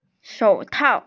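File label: "shou3tao4", Mandarin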